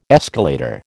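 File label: "escalator", American English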